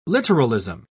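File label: "literalism", British English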